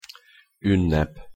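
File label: "unnep", Hungarian pronunciation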